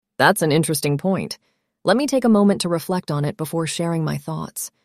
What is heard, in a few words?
synthesized audio